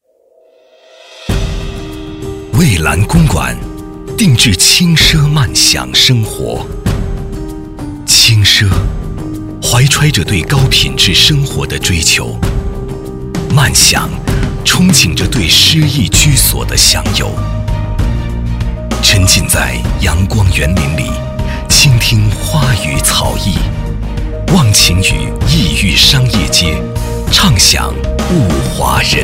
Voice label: 男 广告; 蔚蓝公馆

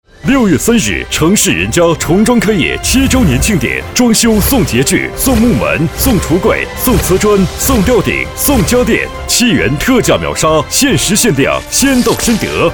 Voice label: 男 广告 大气激情; 城市人家

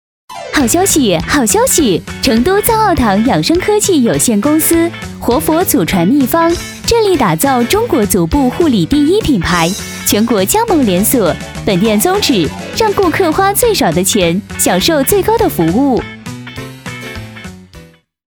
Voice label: 女4-促销-足部护理, 女

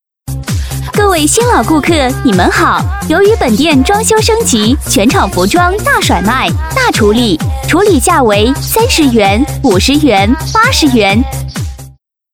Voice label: 女4-促销-装修升级, 女

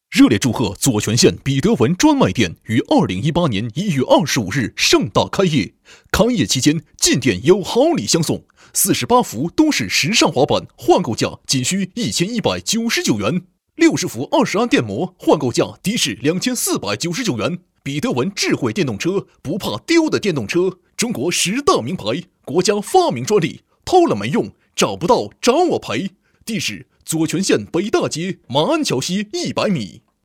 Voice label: 男 促销; 左权县比德文专卖店

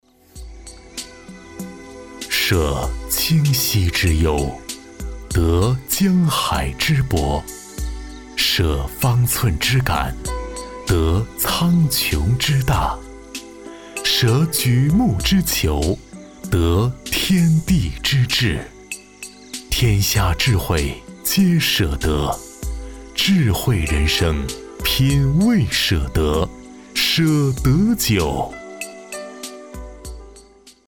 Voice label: 男 广告 酒广告

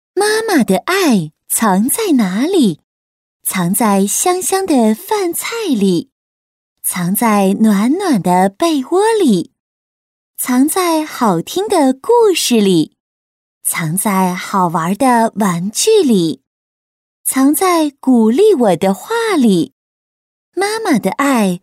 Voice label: 女 课件 妈妈的爱有声读物

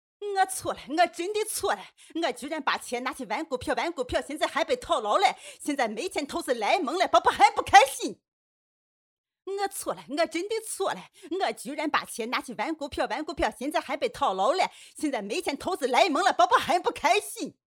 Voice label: 女 模仿; 房价; 佟湘玉

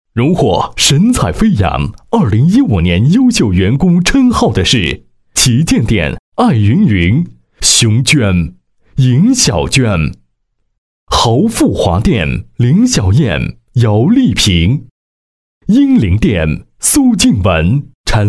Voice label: 男 颁奖 神采飞扬

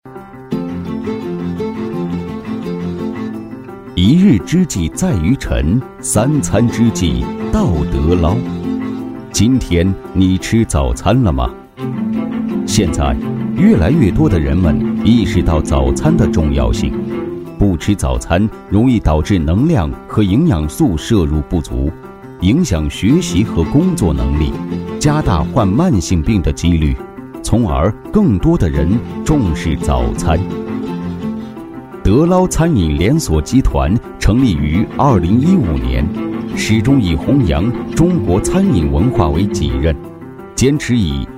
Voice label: 男 专题; 德捞豆腐脑早餐

